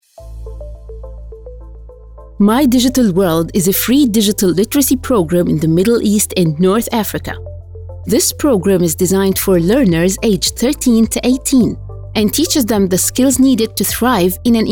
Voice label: English narration